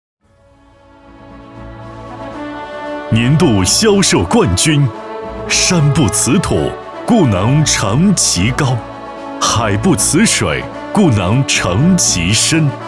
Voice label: 男 颁奖; 展辰2024颁奖

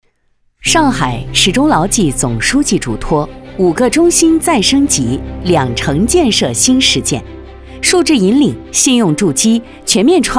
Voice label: 女, 铿锵有力正式汇报

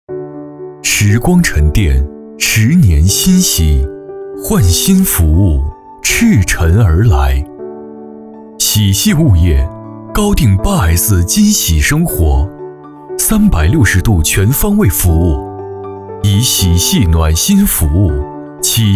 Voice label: 男 宣传 物业, 大气浑厚

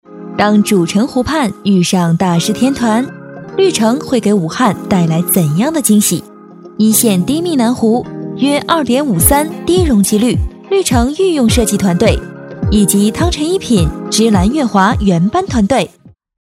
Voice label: 女 旁白 地产

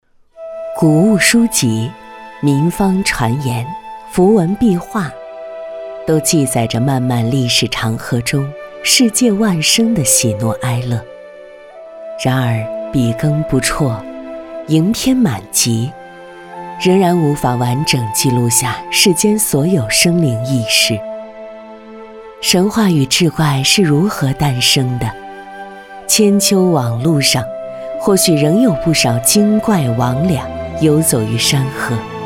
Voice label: 女 沉稳古典知性纪录片

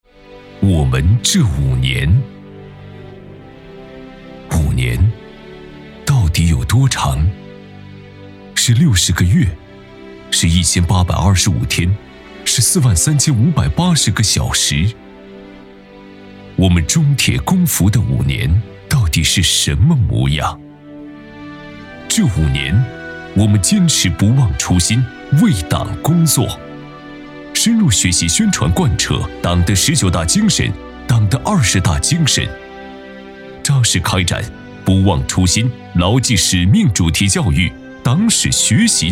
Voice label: 男 专题汇报类; 中铁工服; 我们的五年

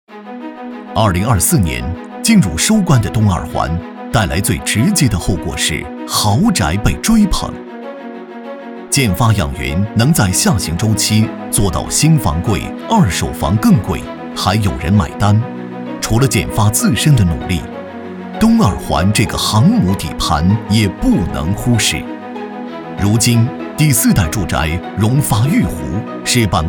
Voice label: 男 宣传 产品推介

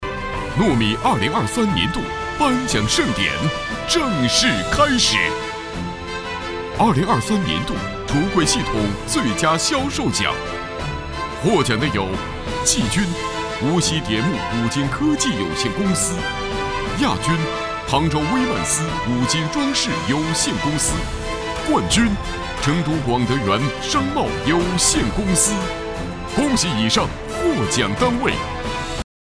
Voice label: 男 颁奖 颁奖盛典; 诺米2023年度

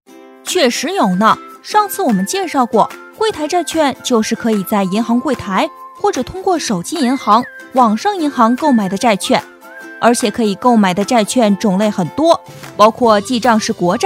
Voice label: 女 角色 男童; 青春活力女配音，声线多变

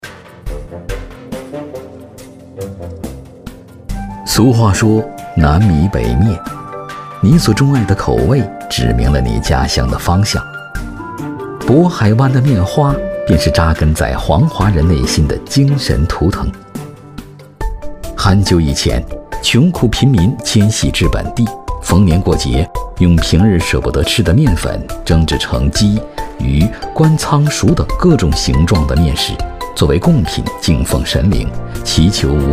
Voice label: 男 纪录片 节庆面花饮食类风格

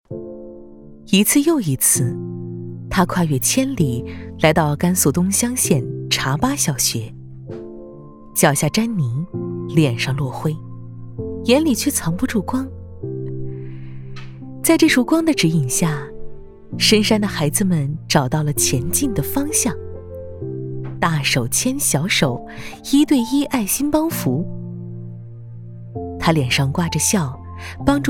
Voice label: 女 专题 讲述; 甘肃东乡县

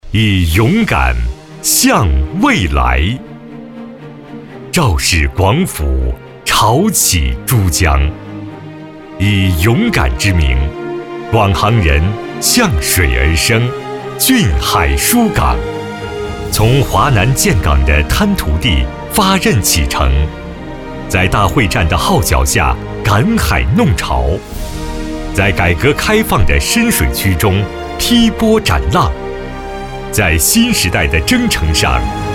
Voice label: 男, 宣传片大气厚重; 广航

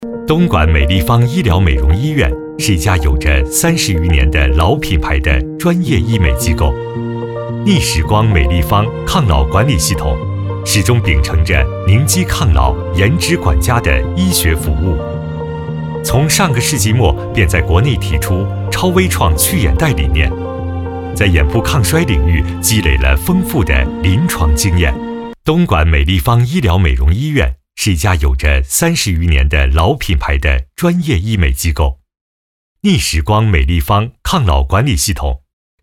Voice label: 男, 宣传片温暖亲切; 美立方

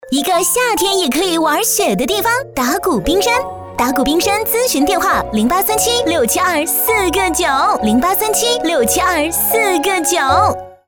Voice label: 女 广告 清新年轻 旅游类

